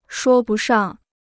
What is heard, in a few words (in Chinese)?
"说不上", Chinese